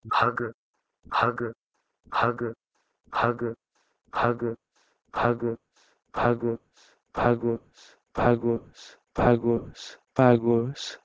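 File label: Proto-Indo-European bheh2g to Doric Greek phagos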